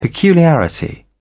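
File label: "peculiarity", English, British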